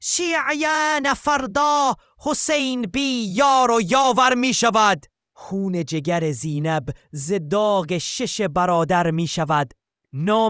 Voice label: ballad mad scientist; openai fm